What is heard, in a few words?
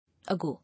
"Ago", English